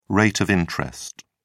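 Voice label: "rate of interest", British English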